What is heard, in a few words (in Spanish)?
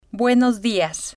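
buenos dias, Spanish, female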